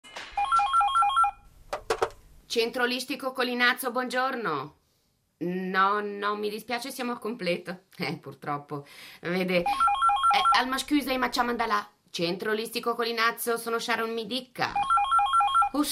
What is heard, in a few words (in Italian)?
sitcom in dialetto ticinese